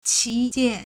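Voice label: "qi2jian4", Mandarin Chinese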